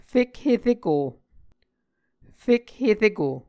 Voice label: "fekhereko", muscogee; Mvskoke Words with Voicing Consonants; A Dictionary of Creek/Muskogee; SIL International